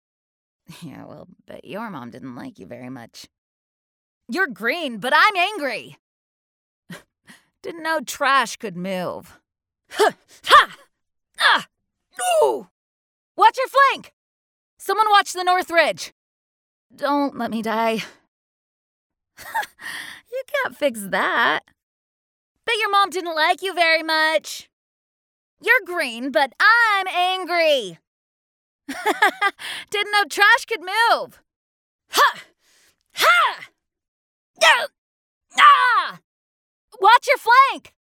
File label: Recruit Female